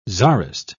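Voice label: "czarist", British English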